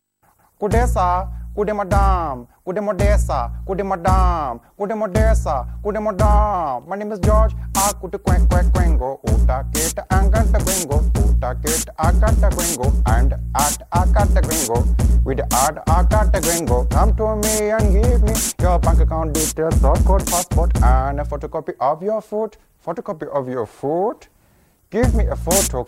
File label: Is Calling Rap